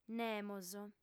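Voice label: "nemos" Sardinian, female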